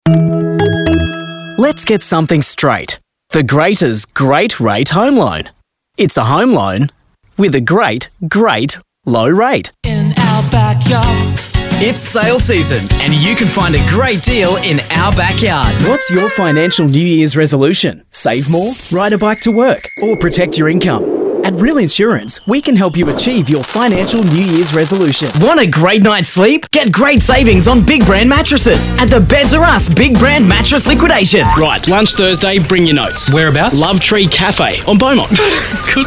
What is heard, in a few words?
male talent retail